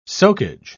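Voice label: "socage", British English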